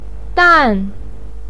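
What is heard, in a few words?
"dan4", Mandarin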